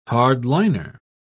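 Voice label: "hardliner", British English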